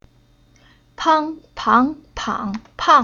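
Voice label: "Pang", Chinese syllable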